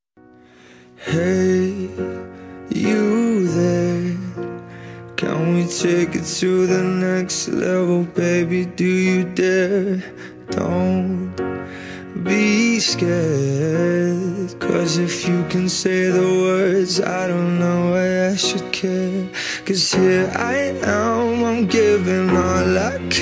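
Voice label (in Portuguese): romantica fem, Telemensagens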